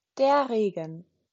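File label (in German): "Regen", German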